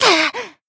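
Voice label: Voice damaged Japanese; kid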